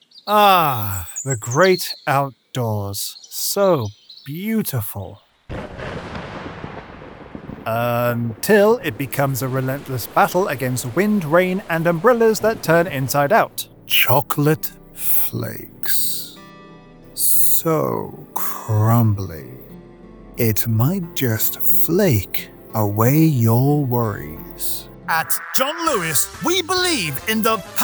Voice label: Commercial Character, British Voice Over

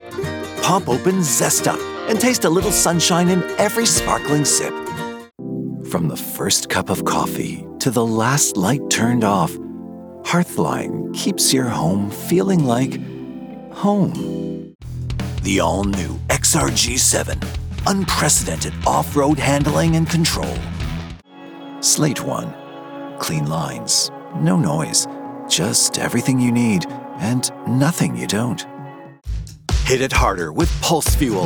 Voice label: Commercial, Male

